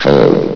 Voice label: "code", vox; Source Engine; bshift

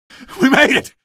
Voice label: reach train, male; video games; npc; source engine; episodic; episode 1

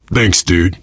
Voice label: thanks, player survivor voice biker

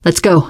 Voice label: im with you, teengirl; survivor voice

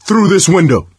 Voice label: misc directional, coach, survivor voice; source engine; left 4 dead 2

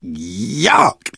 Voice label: reaction disgusted, survivor voice, manager; source engine; left 4 dead 2

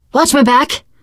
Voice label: cover me, teengirl; source engine; left 4 dead 2; survivor

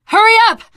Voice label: hurry up, teen girl; voice; source engine; left 4 dead 2; survivor

